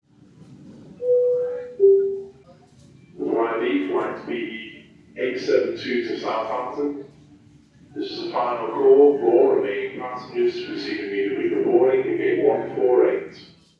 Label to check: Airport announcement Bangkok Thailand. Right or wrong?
Right